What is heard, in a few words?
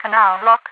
canal block, overwatch radio voice; Half Life 2